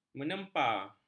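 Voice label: "menempa", Malay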